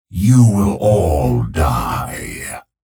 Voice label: chatter positive; clutch; frag